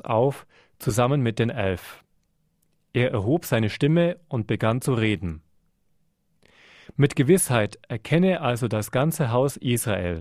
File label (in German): Lesung